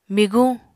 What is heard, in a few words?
"miguñ", Apatani